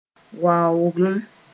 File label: "waooglem", Mooré; large number